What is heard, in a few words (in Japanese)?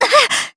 Damage, jp; Yanne; kingsraid